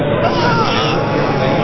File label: distress, babble, sad